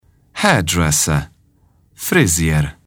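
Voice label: "hairdresser", English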